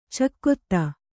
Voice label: "ಚಕೋತ್ತು", Kannada

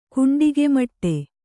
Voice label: "ಕುಂಡಿಗೆಮಟ್ಟೆ", Kannada

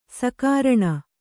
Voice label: "ಸಕಾರಣ", kannada

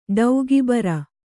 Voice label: "ಟೀಕೆಬರೆ", Kannada

